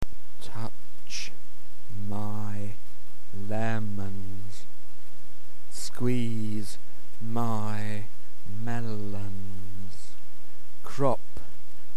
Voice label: fruit poem